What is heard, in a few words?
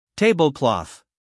"tablecloth", us male; 1000 hours